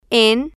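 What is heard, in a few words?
"en", Spanish, female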